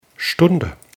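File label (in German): "stunde", German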